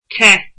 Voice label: "warm", Irish

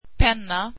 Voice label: swedish pen

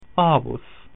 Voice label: "grandfather", Latin

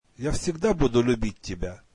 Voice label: russian I will always love you

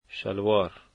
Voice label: "trousers", dari

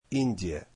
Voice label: russian India

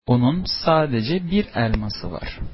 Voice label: she only has one apple, turkish

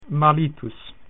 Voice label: "husband", Latin

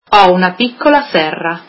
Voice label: italian I have a small green house

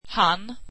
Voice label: swedish he

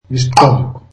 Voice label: zulu hat

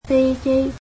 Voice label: "airplane", cantonese

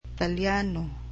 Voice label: "Italian", cebuano

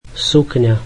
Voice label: slovak skirt

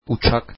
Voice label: turkish airplane

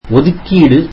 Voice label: tamil reservation

